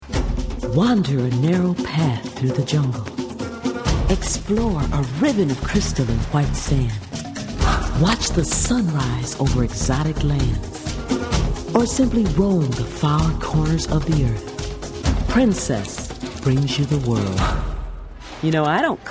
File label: Women Commercial